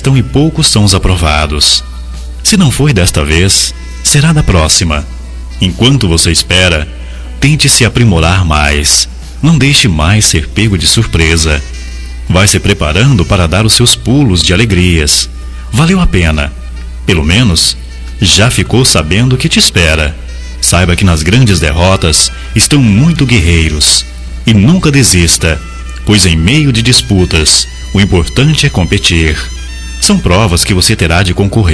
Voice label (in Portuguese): REPROVADO NO VESTIBULAR MASC; Telemensagens 2017; Formaturas